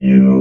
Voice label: "you", robot vox